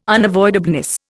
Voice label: "unavoidableness", Indian